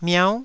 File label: "miau9", Taiwanese syllable